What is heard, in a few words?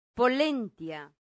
"pollentia", latin; santillana